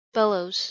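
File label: "bellows", English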